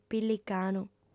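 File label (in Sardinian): "pillicanu", female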